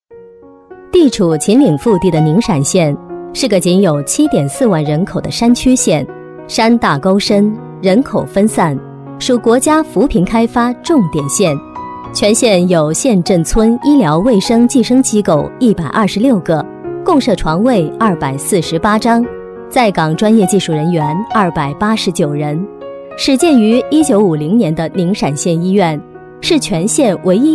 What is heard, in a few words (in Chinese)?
专题 医院 大气, 女, 普通话; 宁陕医院